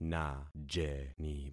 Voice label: "najeni", concat syllables; Affixing experiment

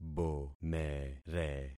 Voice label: "bomere", concat syllables; Affixing experiment

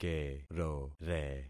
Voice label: "kerore", concat syllables; Affixing experiment

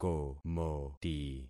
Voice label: "komoti", concat syllables; Affixing experiment

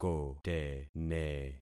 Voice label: "kotene", concat syllables; Affixing experiment

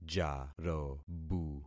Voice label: "jarobu", concat syllables; Affixing experiment

